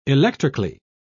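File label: "electrically", British English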